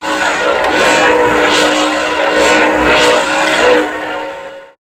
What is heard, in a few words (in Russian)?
golos rech robota